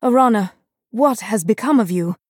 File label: Female Night Elf Hero Greetings